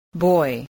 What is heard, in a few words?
"boy", spelling